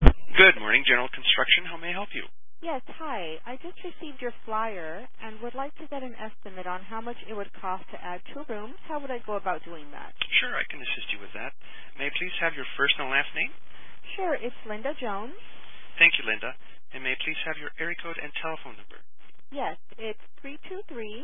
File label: demo call contractor